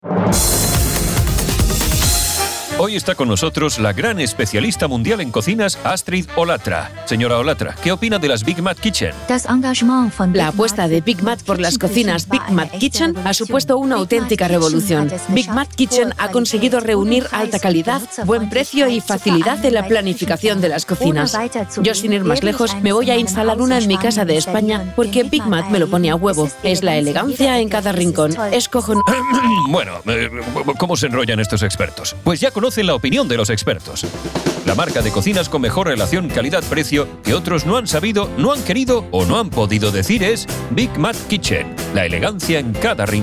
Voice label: Cuña ESP; BIG MAT ESCAYOLAS DEL SEGURA; BigMatKitchens

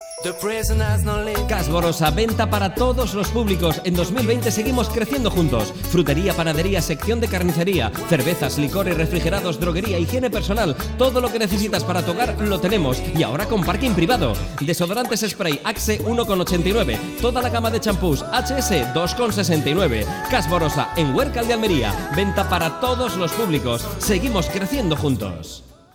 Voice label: cuña en 2020 seguimos creciendo; ALVAREZ LUCHA SL

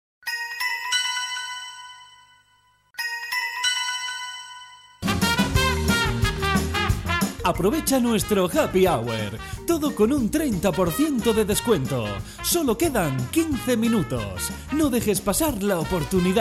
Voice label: HAPPY HOUR 20 MINUTOS ESPANOL; HUMANA; ANDALUCIA-MADRID; HAPPY HOUR SEMANA SANTA

